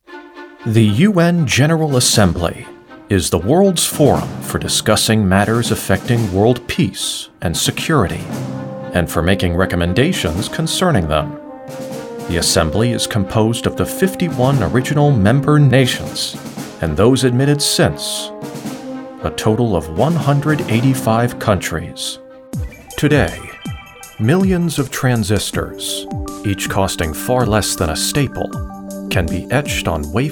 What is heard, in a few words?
Explainer